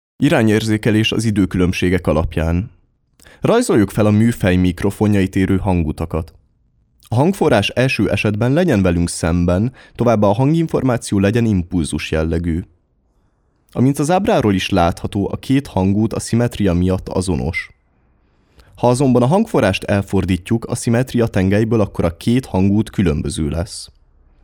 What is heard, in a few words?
hungarian male